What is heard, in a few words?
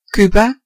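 "cuba", Dutch; gcompris-data; geography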